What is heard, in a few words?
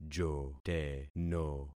"joteno", concat syllables; Affixing experiment